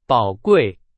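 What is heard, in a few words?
Chinese; hsk4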